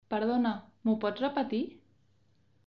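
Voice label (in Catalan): ho pots repetir, Catalan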